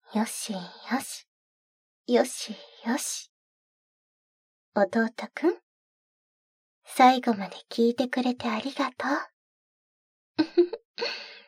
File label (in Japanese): フリートーク, ASMR